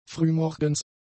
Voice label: "frühmorgens", German; adjectives

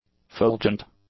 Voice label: "fulgent", English; adjectives

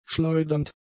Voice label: "schleudernd", German; adjectives

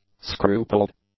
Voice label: "scrupled", English; adjectives

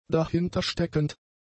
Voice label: "dahintersteckend", German; adjectives

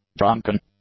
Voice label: "drunken", English; adjectives

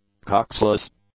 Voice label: "coxless", English; adjectives

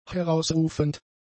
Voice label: "herausrufend", German; adjectives

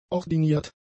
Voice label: "ordiniert", German; adjectives

